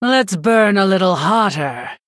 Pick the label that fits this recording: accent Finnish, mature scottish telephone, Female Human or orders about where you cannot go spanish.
Female Human